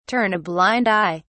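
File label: "turn a blind eye", British English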